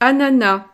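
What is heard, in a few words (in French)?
"ananas", French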